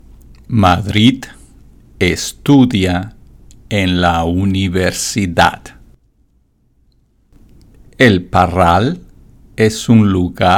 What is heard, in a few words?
Natural Accent; Final Exam